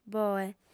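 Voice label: "boe", Sardinian, female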